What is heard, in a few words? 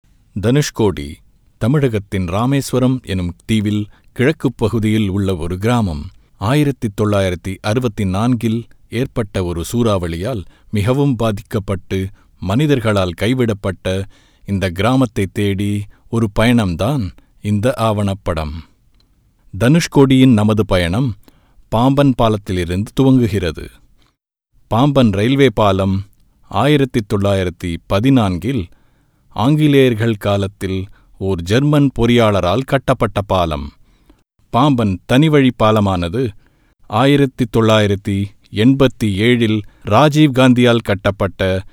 TAMIL DOCUMENTARY